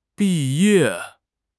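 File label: "bi4 ye4", Mandarin Chinese; HSK4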